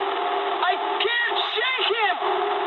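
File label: English aircraft damaged mood med; War Thunder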